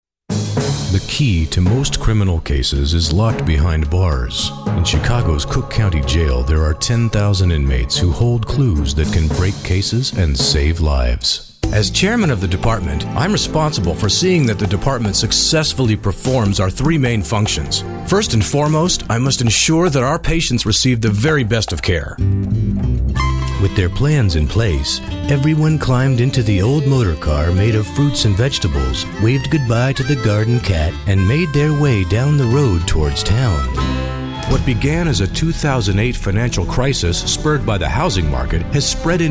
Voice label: narration

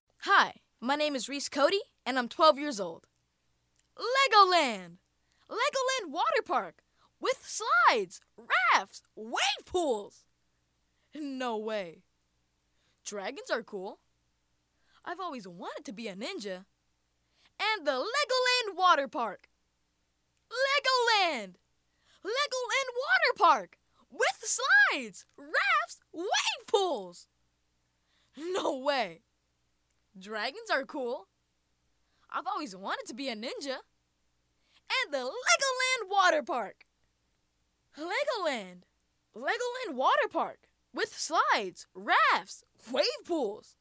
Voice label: Youth, vo

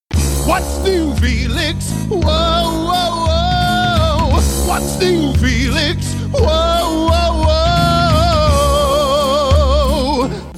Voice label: Animation

